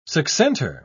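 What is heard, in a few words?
"succentor", British English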